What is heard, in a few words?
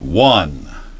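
"one", English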